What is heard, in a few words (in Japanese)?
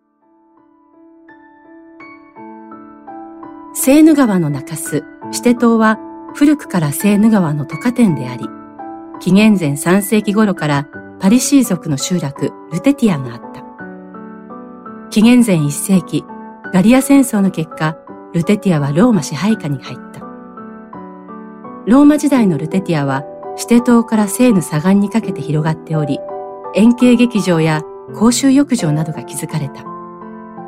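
Japanese, Narration; GoLocalise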